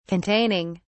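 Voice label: "containing", British English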